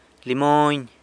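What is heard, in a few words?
"limony", lemon, lime, San Lucas Quiaviní Zapotec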